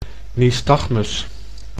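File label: "nystagmus", Dutch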